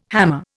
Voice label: "hammer", Indian